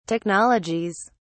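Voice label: "technologies", British English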